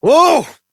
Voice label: Horse Stop Adult; Duviri